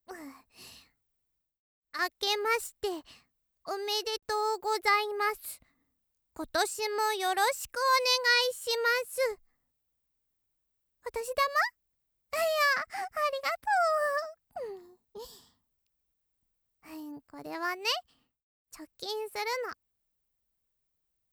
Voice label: ロリ お正月だね

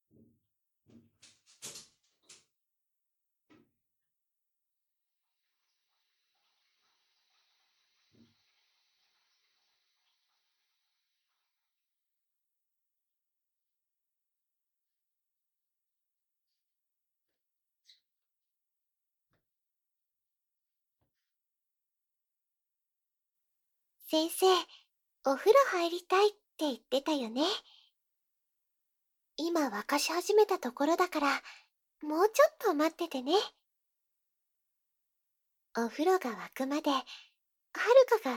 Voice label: 添い寝

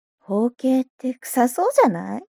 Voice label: mob 女子 台詞

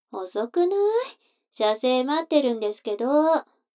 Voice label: 女の子台詞, ASMR

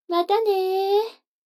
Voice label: 女の子台詞

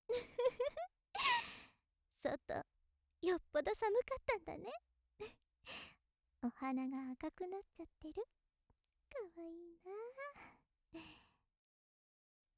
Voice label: 優しいお姉さん 冬だね

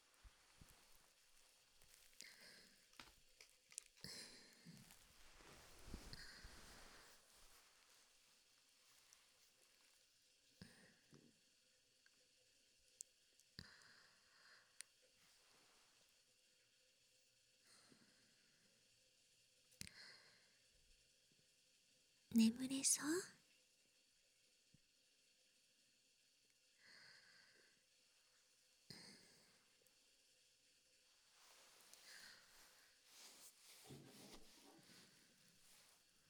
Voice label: おやすみ左耳かき; 【一緒に温泉】道草屋 たびらこ4 ほかほか風邪予防!【お休み歯磨き】; 就寝-おやすみ歯磨き

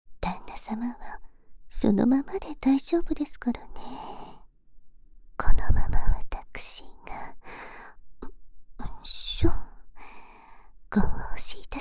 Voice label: 豊かな胸で甘々ご奉仕…射精誘導（バイノーラルマイク 効果音大きめ）

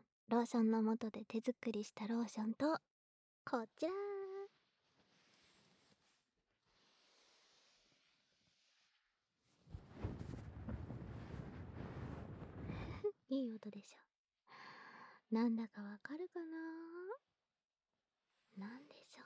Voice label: ローションストッキングで亀頭責めカウントダウン, ASMR

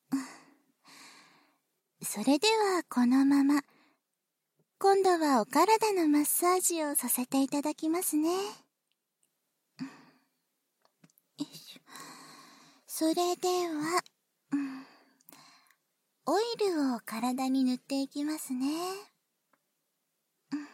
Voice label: ダブルオイルマッサージ（バイノーラルマイク 効果音なし）

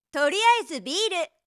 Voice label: とりあえず、ビール！, 飲みに行くOL

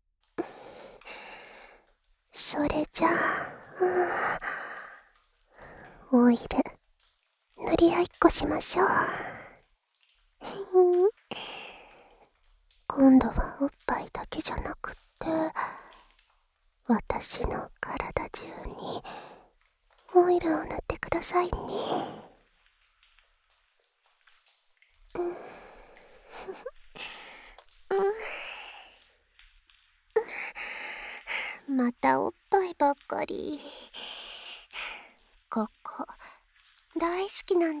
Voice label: 乳首いじりあいっこ→おっぱいオイル性感マッサージ（バイノーラルマイク 効果音大きめ）

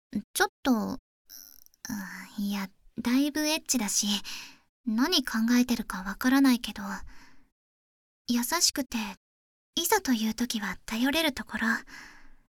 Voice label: あまあまボイス集 （バイノーラルマイク）

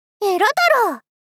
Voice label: えら太郎！ 少女×中音域

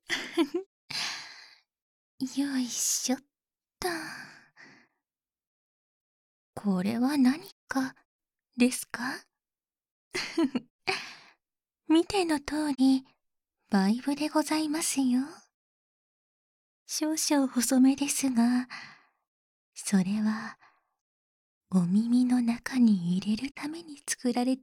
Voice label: 敏感になった耳に耳バイブカウントダウン（バイノーラルマイク 効果音なし）, ASMR

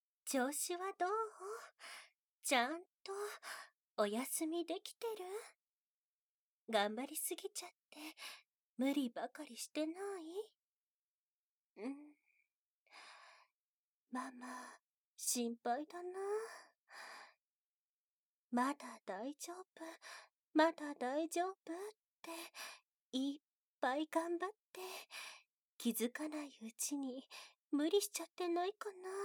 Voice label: なでなで添い寝,ASMR; 愛華ママ; 癒し系専属ナースのいのりさんと愛華ママ

